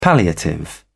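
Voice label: "palliative", British English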